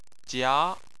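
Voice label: "jia", Chinese, second tone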